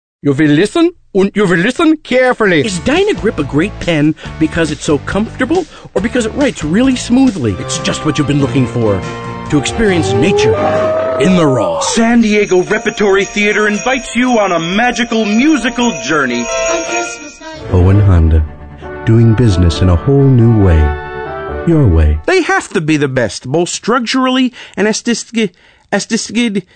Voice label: voiceovers male